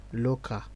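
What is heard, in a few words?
"local", Nigerian Pidgin, male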